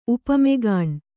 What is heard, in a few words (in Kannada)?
"ಉಪಮೆಗಾಣ್", kannada